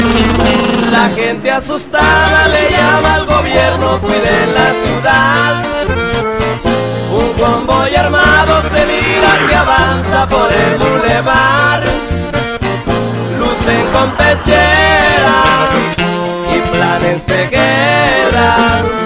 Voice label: Is Calling Rap